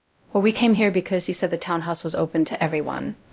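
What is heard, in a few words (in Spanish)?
Voice Acting, es, female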